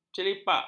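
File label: "celipak", Malay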